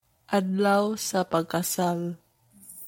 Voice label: Cebuano